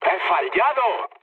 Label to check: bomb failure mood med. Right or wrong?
Right